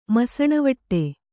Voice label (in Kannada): "ಮಸಣವಟ್ಟೆ", Kannada